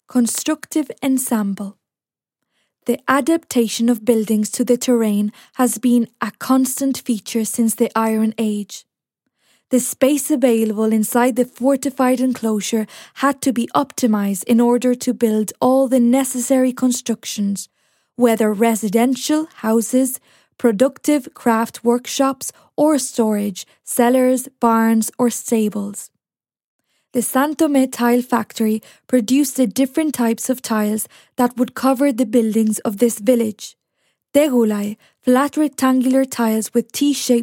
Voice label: English Audioguias; Santome; Constructive ensemble